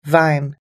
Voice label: "vine", US English